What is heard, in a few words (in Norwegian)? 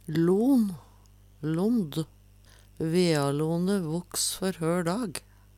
lon, Numedalsmål; "lond" og "lån" blir og bruka